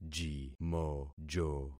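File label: "jimojo", concatenated syllables; Affixing experiment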